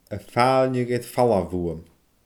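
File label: "a faailneadh air falbh bhuam", Scottish Gaelic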